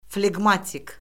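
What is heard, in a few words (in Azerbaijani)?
"fleqmatik", Azerbaijani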